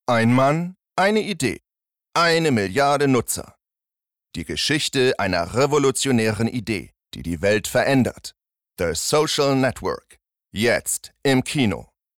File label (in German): Werbung, Commercial (Werbung), German